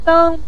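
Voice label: "deng5", Chinese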